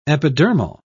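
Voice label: "epidermal", British English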